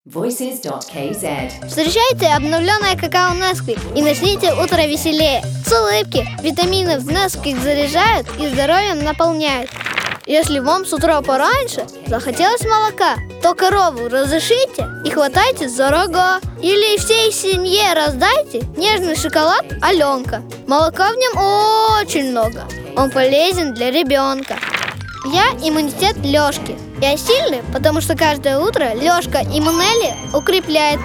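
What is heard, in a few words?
Russian kid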